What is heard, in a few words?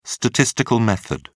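"statistical method", British English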